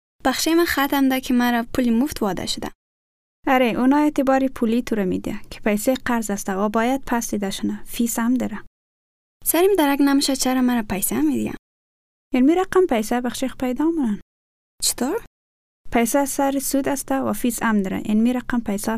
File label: credit hazaragi